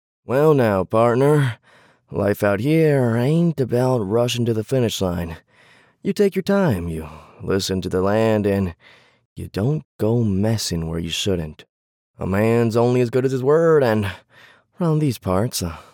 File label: Cowboy Accent Serious Wild West South Confrontational Dangerous; male voiceover